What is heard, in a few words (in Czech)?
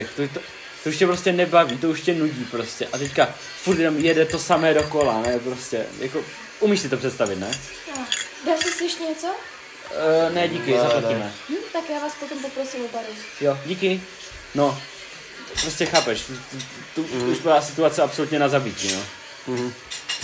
reportazni situace